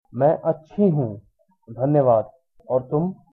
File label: fine, hindi